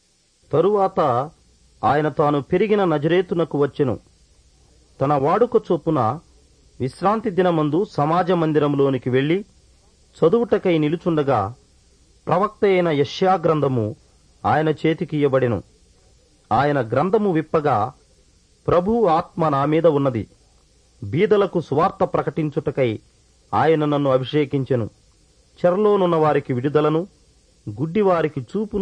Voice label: telugu; portrait of jesus

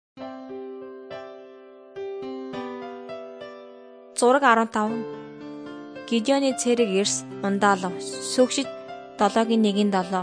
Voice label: mongolian

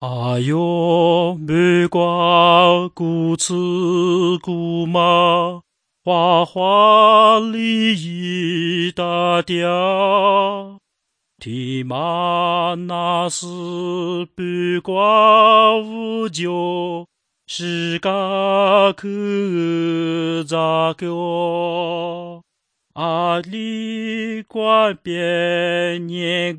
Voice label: words of life lisu southern

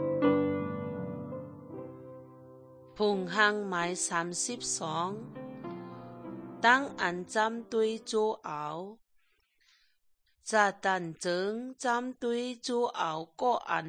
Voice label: good news shan eastern, drama

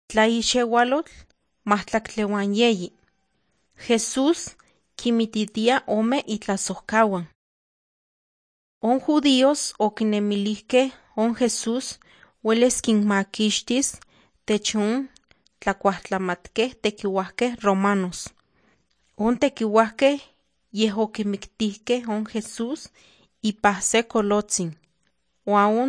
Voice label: nahuatl guerrero tlapa; the bible league nt drama

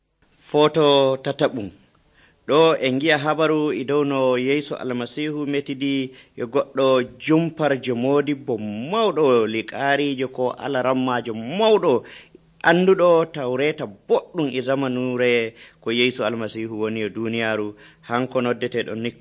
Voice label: fulfulde, drama; fulfulde adamawa abc nt drama

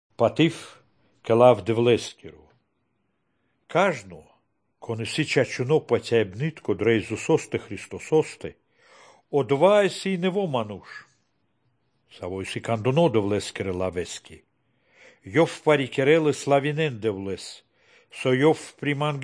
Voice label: good news baltic romani

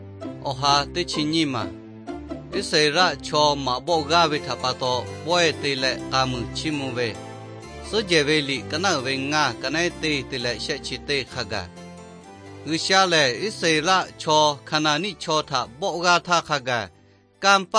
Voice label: victory through god lahu na, nt drama; bible; lahu thailand bible society

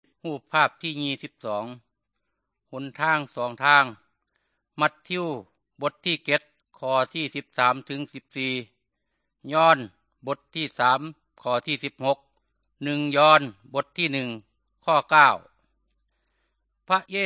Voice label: good news thai northeastern

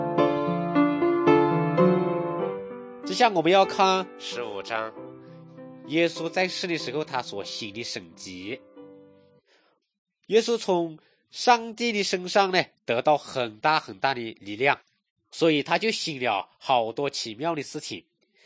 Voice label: good news shan, drama; kokant shan; thailand bible society nt

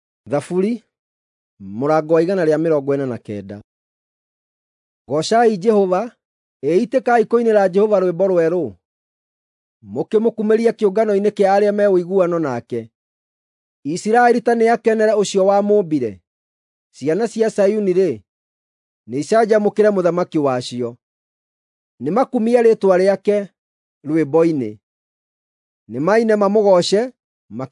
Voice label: psalms, gikuyu drama

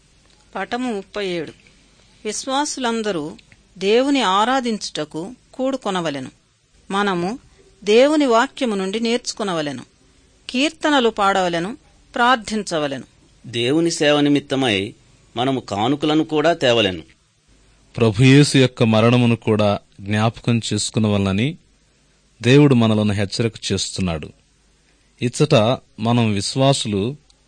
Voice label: good news telugu

